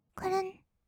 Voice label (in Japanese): 「くるん」, kawaii voice; 1200 kawaii voice!!; 色々なワンショット